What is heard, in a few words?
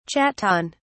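"chaton", British English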